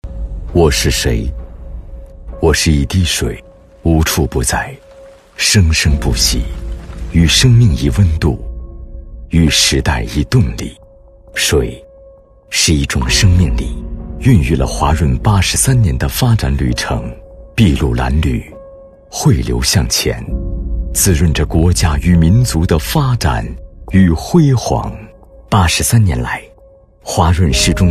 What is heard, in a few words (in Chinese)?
男; 华润